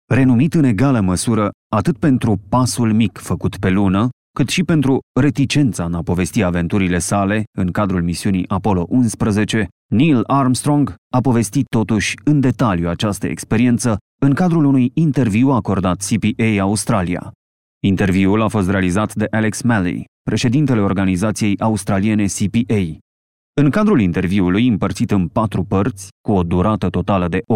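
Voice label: Romanian male Narartie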